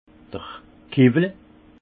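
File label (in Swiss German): "kewwel", Alsace Haut Rhin; vin; cuveau en bois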